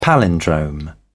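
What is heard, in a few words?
"palindrome", British English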